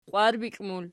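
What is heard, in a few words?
"qwarbikli", Archi, plural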